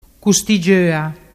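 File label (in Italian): "custigioa", Vernazzese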